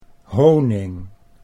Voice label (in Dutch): "honing", Dutch